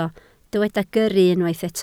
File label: "gyrru", Welsh